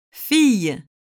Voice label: "fille", French